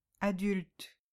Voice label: "adulte", French